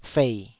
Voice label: "fei3", Taiwanese

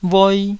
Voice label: "voi2", taiwanese

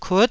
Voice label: "kut9", Taiwanese syllable

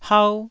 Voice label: "hau1", Taiwanese syllable

